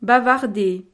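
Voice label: "bavarder", French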